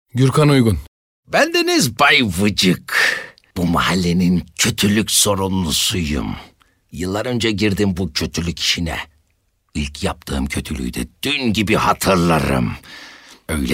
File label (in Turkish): animasyon